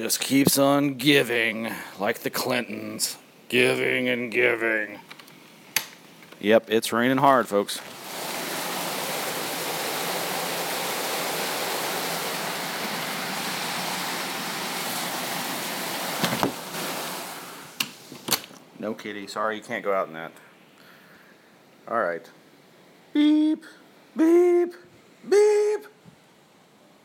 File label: radio weather alert